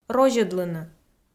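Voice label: "розьӧдлыны", Komi, female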